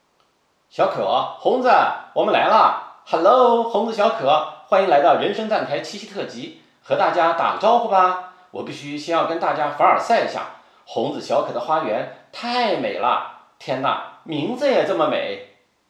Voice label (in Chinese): 电台主持